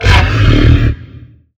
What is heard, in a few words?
Zombie